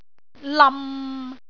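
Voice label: "lam6", Cantonese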